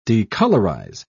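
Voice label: "decolorize", British English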